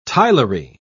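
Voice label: "tilery", English, British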